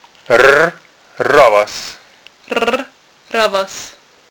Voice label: R sound